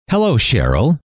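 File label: hello, male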